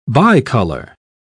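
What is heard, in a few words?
"bicolor", British English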